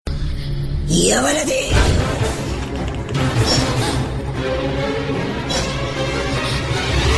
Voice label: horror voice